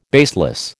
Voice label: "baseless", American